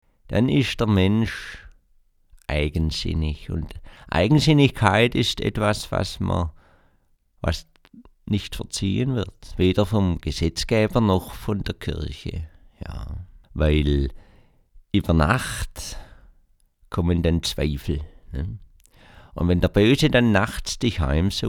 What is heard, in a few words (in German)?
schwaebisch